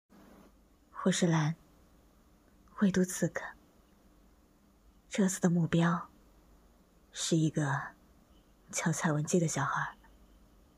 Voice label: 女版

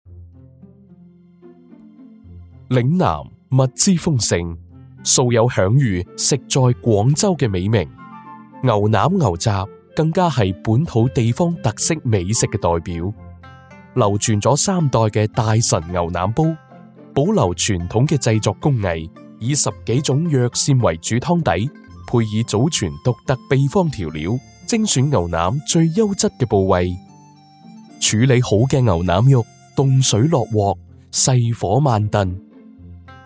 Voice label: 粤语宣传片; 舌尖风牛腩煲

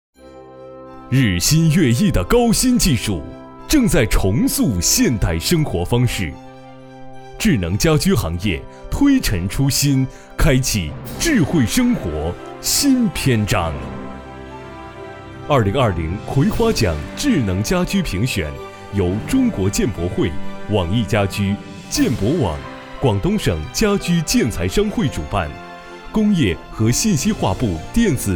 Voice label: 颁奖大气

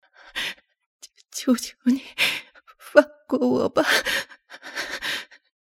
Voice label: 恐惧

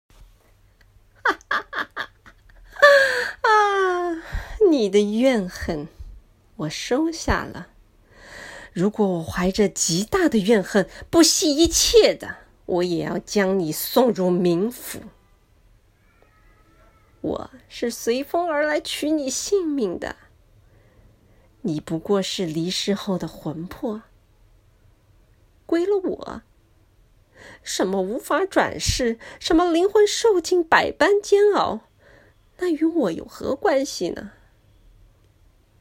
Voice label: 女巫台词

